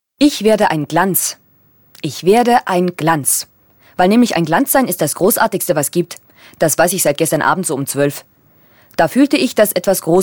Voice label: Hörbuch

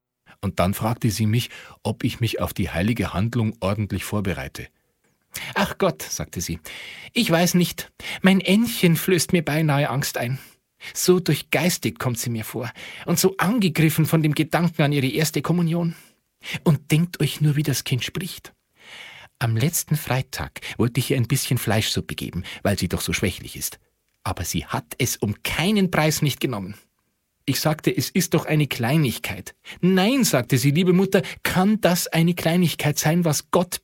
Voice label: bayerisch

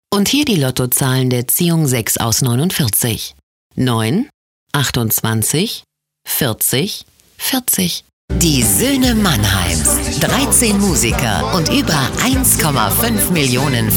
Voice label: Werbung

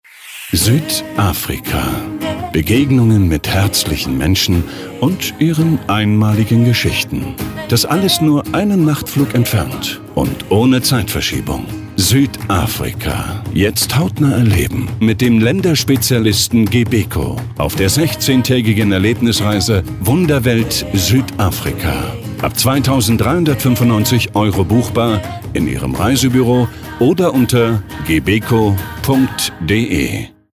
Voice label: Radio; Gebeco Reisen

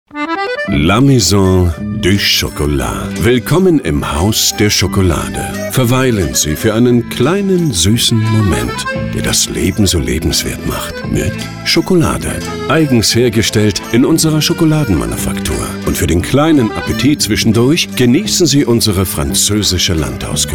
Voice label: Radiowerbung

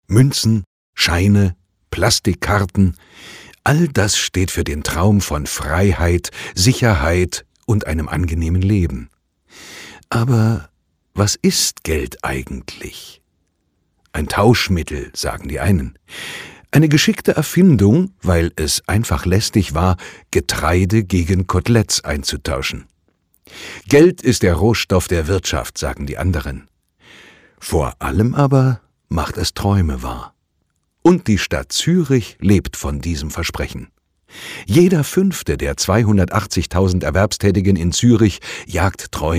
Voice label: Hörbuch

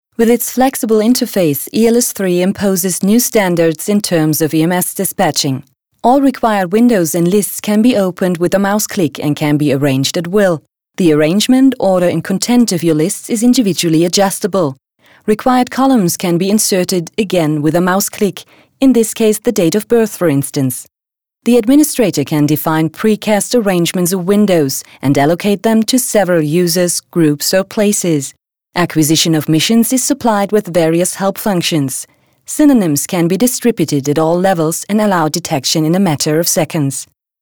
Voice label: Erklärfilm englisch

